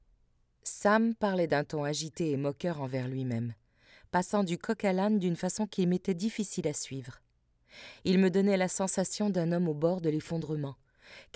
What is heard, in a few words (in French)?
Narration studio maison; Le voyage d'Anna Blume; Paul Auster